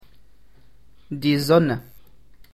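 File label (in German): "die Sonne", German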